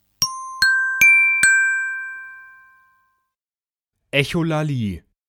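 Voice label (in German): "Echolalie", German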